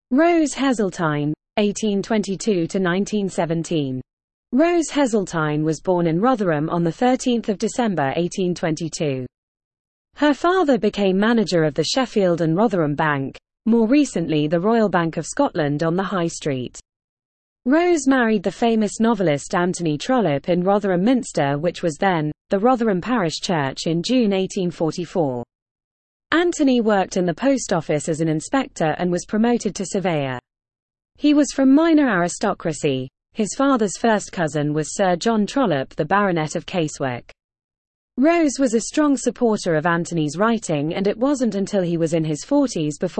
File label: Female